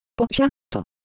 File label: Japanese Shape, Ideo Phones